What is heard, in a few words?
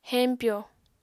"heñpyo", apatani